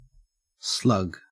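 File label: "slug", Australian English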